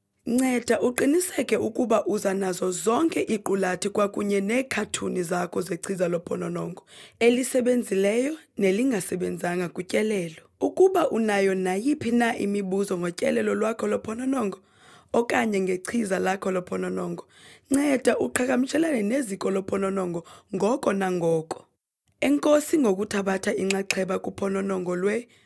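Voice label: Xhosa, voice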